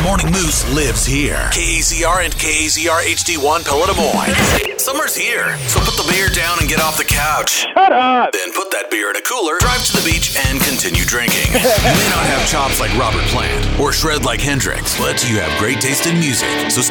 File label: Classic Rock Imaging VO